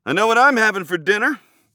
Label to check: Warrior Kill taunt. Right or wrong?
Right